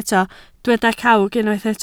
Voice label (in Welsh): "cawg", Welsh